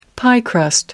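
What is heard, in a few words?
"piecrust", British English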